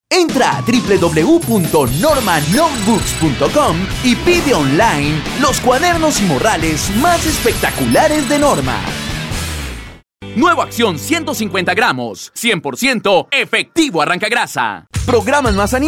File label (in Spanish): voz comercial